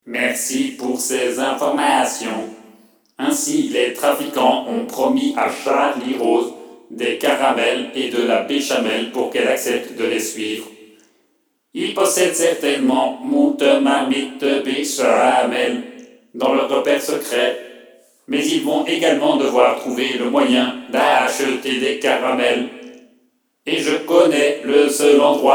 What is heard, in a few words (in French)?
NARRATION